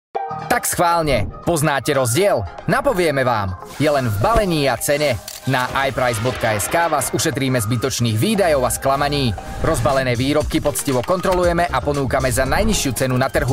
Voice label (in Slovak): muzsky voiceover